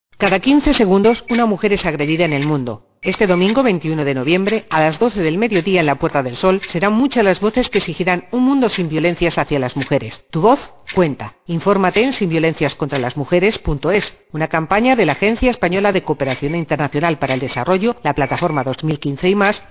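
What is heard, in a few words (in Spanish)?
cuña sin musicar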